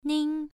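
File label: "nin", Mandarin Chinese syllable, first tone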